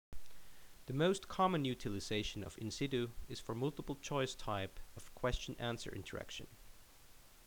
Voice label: Narration